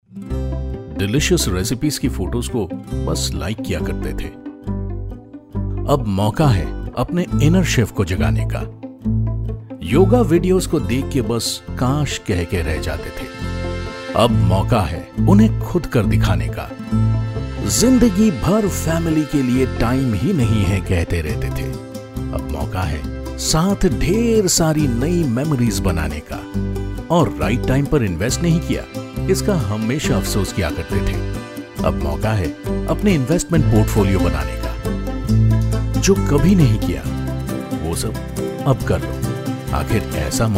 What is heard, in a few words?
Hindi VO Sample